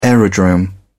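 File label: "aerodrome", British English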